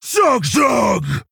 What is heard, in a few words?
Male Orc, Attack